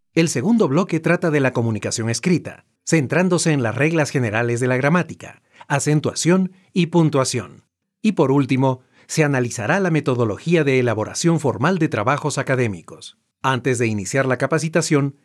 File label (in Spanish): voice actor, Español - Perú - Hombre; VoiceBunny